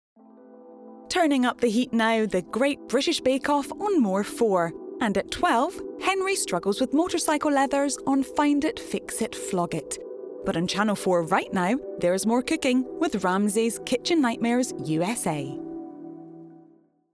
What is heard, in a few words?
Continuity; Channel 4